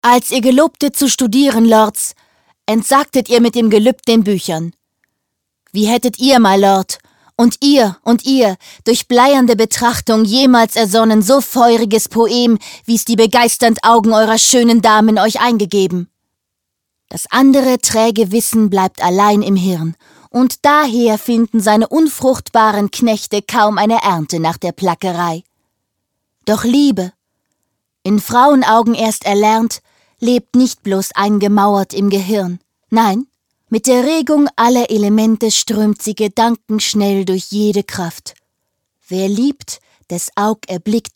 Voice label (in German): synchron; LOFT VOICES